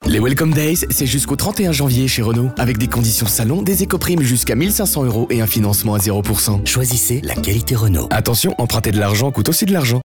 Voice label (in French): Voix M